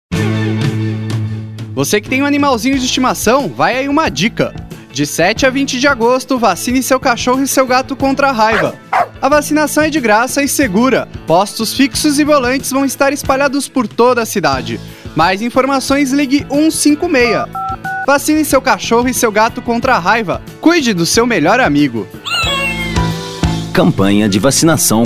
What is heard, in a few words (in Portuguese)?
Spot; Raiva